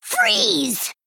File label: Female Gnome Attack